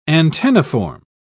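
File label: "antenniform", British English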